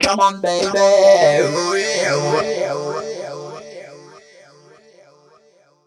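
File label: effects talk, Funky Vocals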